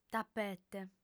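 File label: "tapete", female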